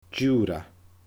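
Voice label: "Diuura", Scottish Gaelic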